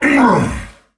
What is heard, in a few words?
Pain Heavy; Warframe; Dax Heavy; Battle Barks